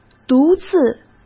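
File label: "独自", Mandarin Chinese; chinese flashcards; hsk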